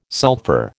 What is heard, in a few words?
"sulphur", American